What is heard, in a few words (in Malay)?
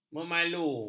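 "memalu", Malay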